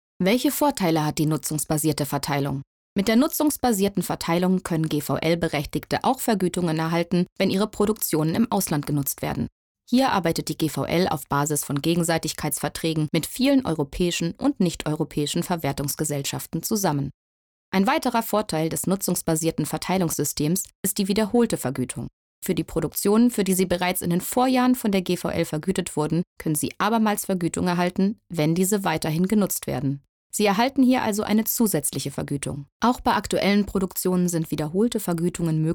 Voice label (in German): Kommentar